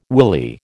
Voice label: "willy", American